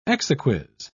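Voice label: "exequies", British English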